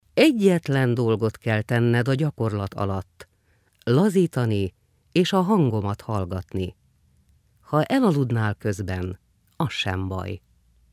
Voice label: Meditation